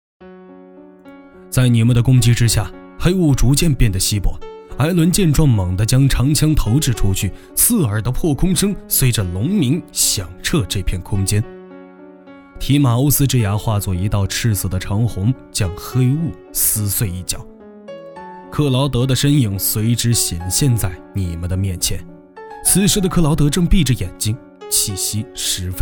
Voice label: 剧本杀旁白

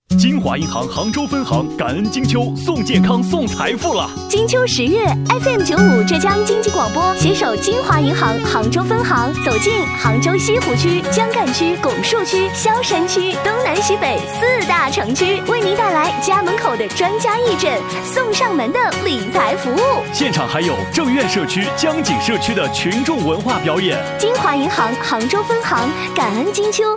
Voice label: 银行宣传; 金华银行宣传带

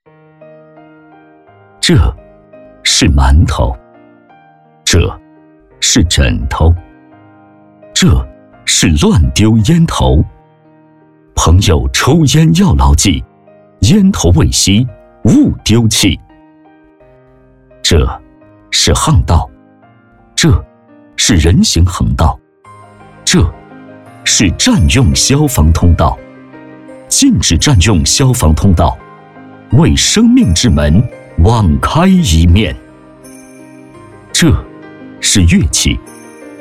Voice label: 广告消防公益广告

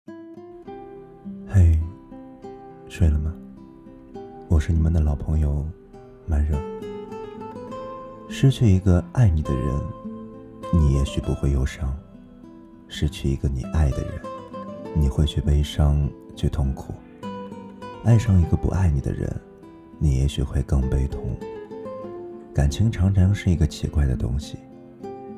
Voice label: 电台作品; 错爱

